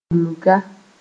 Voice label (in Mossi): "bulga", moore